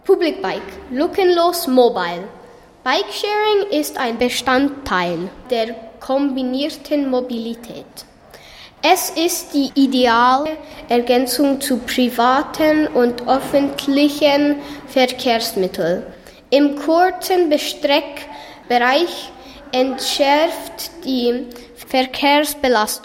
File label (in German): werbung; muristalden2019; campus-muristalden; publibike